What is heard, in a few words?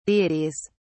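"deities", British English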